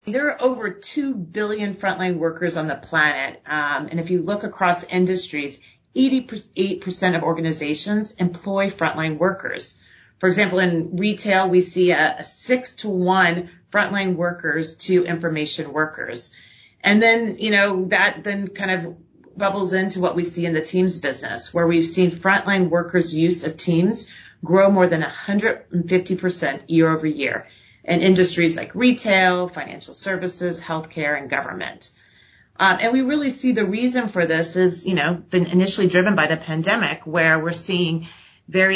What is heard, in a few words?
corporate podcast en us; microsoft nicole